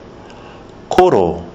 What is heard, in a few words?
"koro", kiwi english